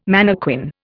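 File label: "mannequin", Indian English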